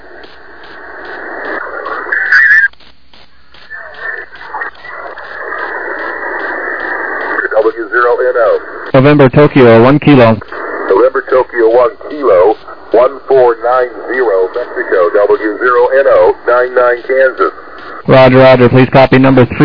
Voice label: SSB USB 21MHz